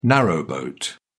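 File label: "narrowboat", British English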